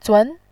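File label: "zun" Mandarin Chinese, second tone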